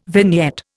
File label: "vignette", Indian